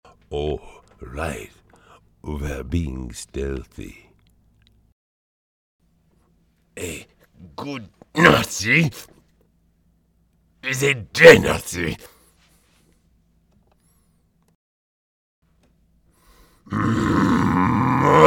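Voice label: Polish Werebear Soldier; ArtificerGames-PolishWerebearSolder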